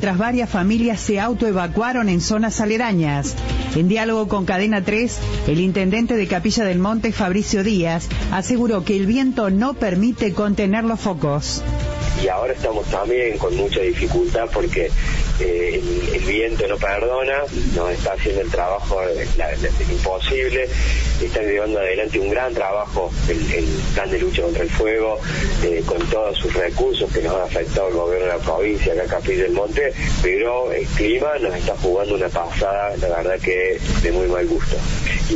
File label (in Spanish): Radio Incendio Forestal; Radio Cadena 3 Argentina